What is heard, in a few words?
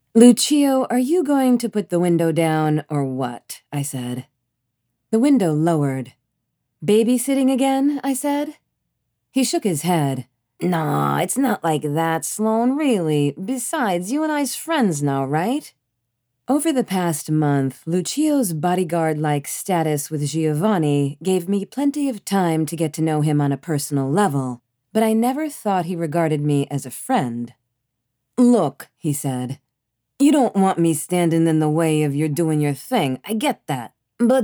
NY Dialect; I Have a Secret